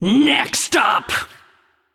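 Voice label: Female Naga Centaur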